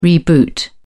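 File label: "reboot", British English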